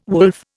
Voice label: "wolf", Indian